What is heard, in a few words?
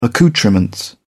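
"accoutrements", British English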